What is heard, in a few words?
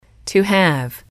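"to have", English, female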